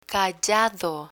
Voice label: "callado", Spanish; technical spanish verbs; learn spanish

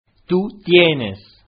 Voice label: "tu tienes", Spanish

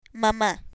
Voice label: "mama", Spanish; vocabulary; learn spanish

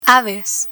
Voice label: "aves", Spanish; rules gender termination

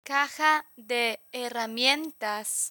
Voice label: "caja de herramientas", Spanish; construction tools

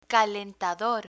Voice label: "calentador", Spanish; apartment rental terminology

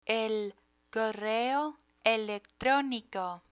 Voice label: correo electronico, Spanish; spanish for kids; learn spanish

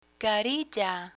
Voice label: "carilla", Spanish; dental words; learn spanish

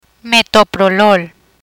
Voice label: "Metoprolol", Spanish; hypertension therapy; learn spanish

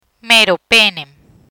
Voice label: "Meropenen", Spanish

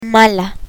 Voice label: "mala", Spanish; spanish alphabet; learn spanish

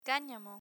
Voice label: "canamo", Spanish

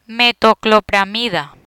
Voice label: "Metoclopramida", Spanish; gastrointestinal agents; learn spanish

